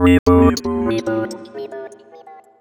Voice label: "reboot" F2, robotic vox; Zero-G Club Techno

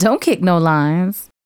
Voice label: Don't Kick No Lines, Hip-Hop and RnB Vocals

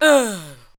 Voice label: ADLIBS 90; Ueberschall; Jam Box

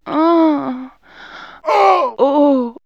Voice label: Men Women Animal Magic, vox; X-Static Goldmine

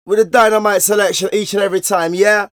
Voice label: Vocals; Jungle Frenzy 1

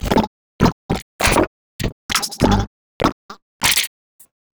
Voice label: puzzle, Vocal Loops; Transmission-X

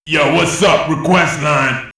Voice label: "Yo, Whats Up", rap vocals; X-Static Goldmine

